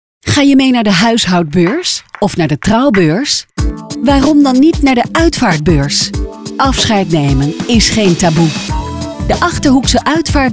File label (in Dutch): commercial; uitvaartbeurs 2015